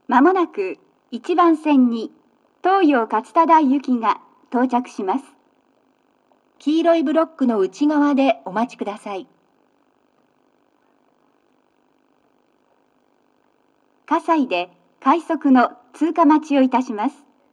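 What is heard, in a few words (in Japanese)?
sekkin, 接近放送 【女声】; 放送は「各駅停車 東葉勝田台行き」です。葛西で、快速 東葉勝田台行きの通過待ちを致します。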